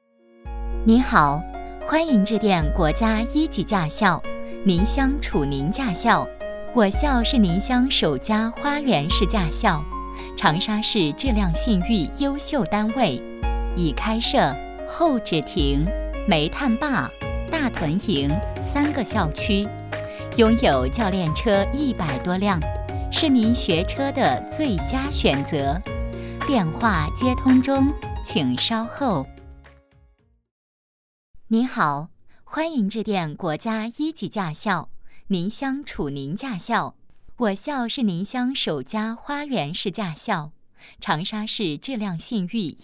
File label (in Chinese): 彩铃 电话彩铃